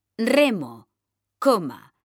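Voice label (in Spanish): "remo coma", female; mekanta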